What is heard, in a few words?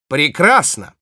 Spy cheers, ru